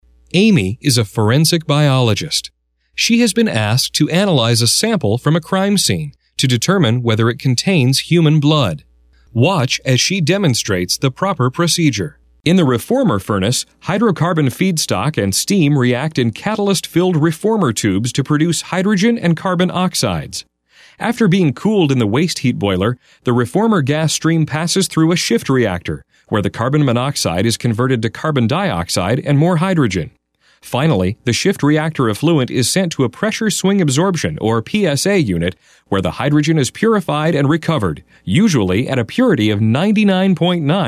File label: multimedia vo; demos